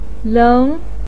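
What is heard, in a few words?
"leng", Mandarin Chinese, second tone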